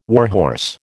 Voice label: "warhorse", American

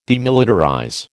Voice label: "demilitarize", American

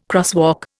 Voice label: "crosswalk", Indian

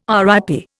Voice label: "RIP", Indian

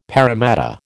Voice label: "paramatta", American

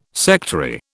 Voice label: "sectary", British

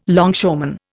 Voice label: "longshoreman", Indian

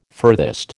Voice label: "furthest", American

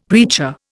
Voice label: "preacher", Indian; Dictionary

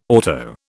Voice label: "auto", British

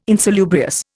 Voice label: "insalubrious", Indian

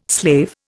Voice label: "slave", Indian

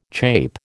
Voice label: "chape", American

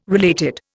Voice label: "related", Indian

